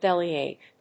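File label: "bellyache", English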